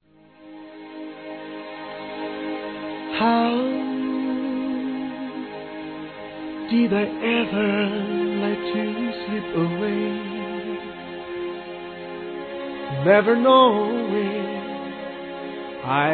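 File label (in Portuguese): ROMANTICA POESIA, Telemensagens, Românticas masculino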